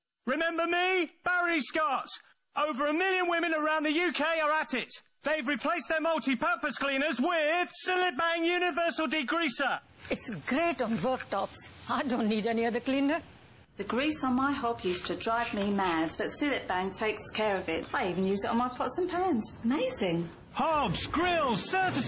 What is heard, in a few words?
Advert; Cillit Bang